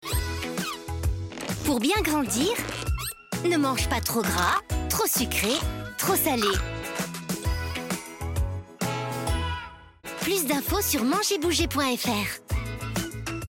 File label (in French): SPOT; BIEN GRANDIR